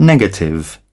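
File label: "Negative", British English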